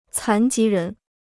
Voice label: "残疾人", Chinese

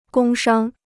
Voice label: "工商", Chinese

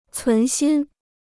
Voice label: "存心", Chinese

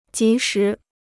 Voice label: "及时", Chinese

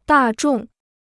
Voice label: "大众", Chinese

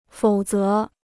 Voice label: "否则", Chinese

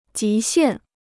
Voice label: "极限", Chinese

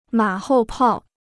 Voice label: "马后炮", Chinese

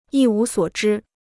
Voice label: "一无所知", Chinese

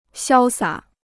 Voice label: "潇洒", Chinese

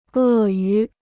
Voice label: "鳄鱼", Chinese

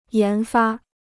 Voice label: "研发", Chinese

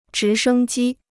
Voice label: "直升机", Chinese

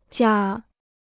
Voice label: "假", Chinese

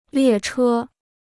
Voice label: "列车", Chinese

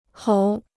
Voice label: "猴", Chinese

